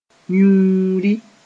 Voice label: "yũuri", moore

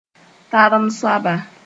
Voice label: "tarem soaba", moore

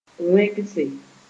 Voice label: "wẽgse", Moore

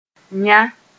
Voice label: "yã", moore

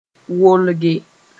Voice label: "wolge", moore

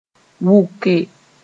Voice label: "wʋke", moore; to collect

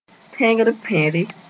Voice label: "tẽgr-pẽre", Moore

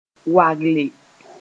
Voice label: "wagelle", Mooré; well shaped

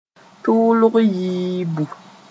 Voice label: "tʋʋlg yiibu", moore; perspiration

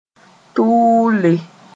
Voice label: "tʋʋle", moore; be hotbe quick

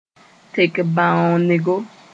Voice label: "tek bãoonego", moore

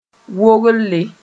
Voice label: "wogle", moore

